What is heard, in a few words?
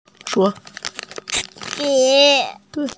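"zhi3", Mandarin Chinese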